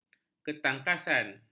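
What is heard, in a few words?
"ketangkasan", Malay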